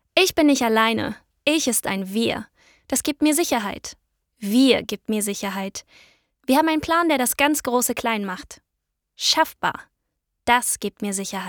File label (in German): Hoerprobe Imagefilm